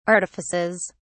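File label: "artifices", English, British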